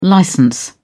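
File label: "licence", British English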